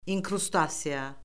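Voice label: "inkrustasiya", Azerbaijani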